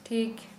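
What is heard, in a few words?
Santali female right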